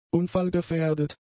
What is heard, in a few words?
"unfallgefährdet", German; adjectives